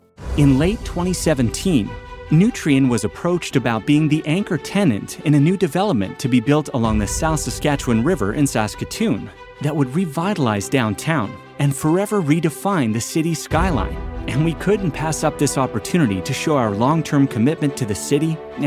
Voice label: Narration